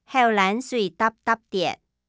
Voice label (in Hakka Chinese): 口涎水溚溚跌, 客語